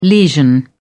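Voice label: "lesion", British English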